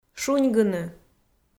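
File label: "шуньгыны", Komi, female; kpv audio dict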